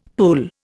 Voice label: "pull", Indian; Dictionary